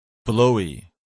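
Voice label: "blowy", English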